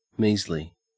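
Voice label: "measly", Australian English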